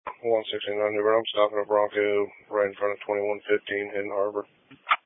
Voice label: Police Patrol 1 (Dispatch); New Bern Police Patrol 1 (Dispatch) (TG 200)